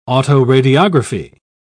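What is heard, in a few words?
"autoradiography", English, British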